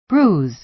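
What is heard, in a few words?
"bruise", American English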